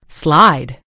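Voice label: "slide", British English; gcompris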